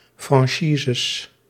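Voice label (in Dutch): "franchises", Dutch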